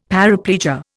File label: "paraplegia", Indian; Dictionary